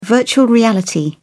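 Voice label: "virtual reality", English, British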